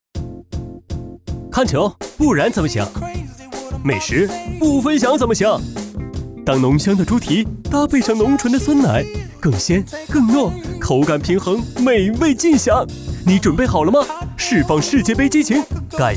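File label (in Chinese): 广告年轻活力青年, 普通话, 男; 世界杯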